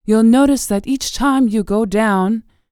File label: FEM SPOKEN; ILIO; Vocal Planet VOL-4; R&B & Dance